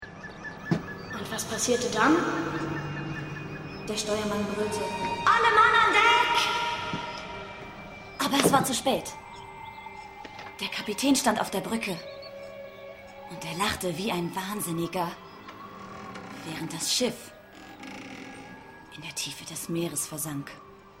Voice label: synchron schiffsjunge; Muttersprache Deutsch

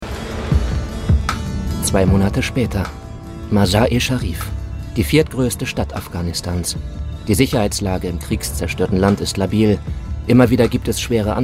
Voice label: reportage kommentar soldatin im krisengebiet; swr

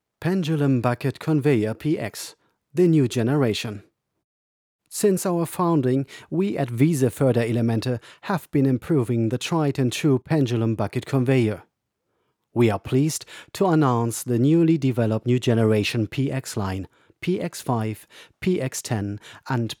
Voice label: imagefilm englisch; wiese

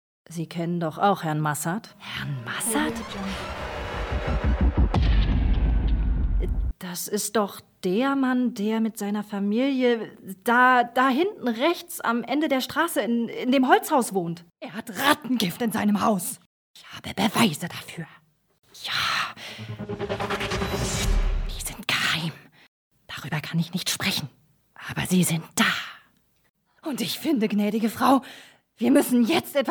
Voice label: nachbarinnen gossip soundbett, sprecherin; Muttersprache Deutsch

